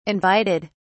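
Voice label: "invited", British English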